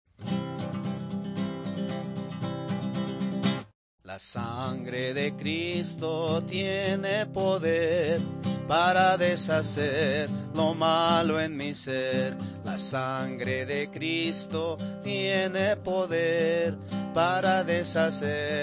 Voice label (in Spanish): good news spanish mexico